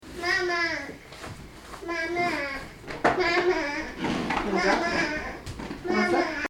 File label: 8 month old saying mama